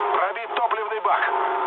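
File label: tank damaged, mood low, Russian; War Thunder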